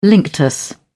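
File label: "linctus", British English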